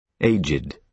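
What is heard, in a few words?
"aged", British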